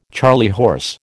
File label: "charley horse", American